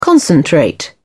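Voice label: "concentrate", British English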